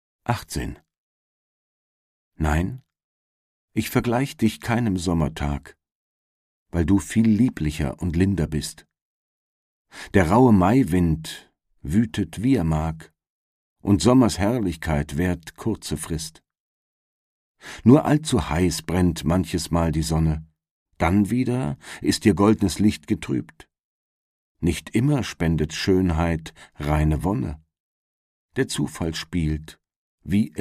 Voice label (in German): erzaehlung